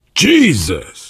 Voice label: violence awe, player survivor voice biker; source engine; left 4 dead 2